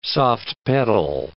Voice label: "soft-pedal", English

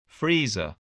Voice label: "freezer", English voice, spelling

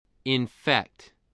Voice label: "infect", spelling voice

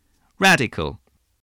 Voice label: "radical", english

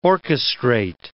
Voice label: "orchestrate", English word

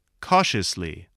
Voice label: "cautiously", spelling

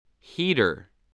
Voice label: "heater", English, spelling

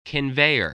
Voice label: "conveyor", spelling

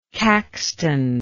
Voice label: "caxtonian", English

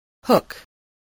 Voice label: "hook", voice spelling

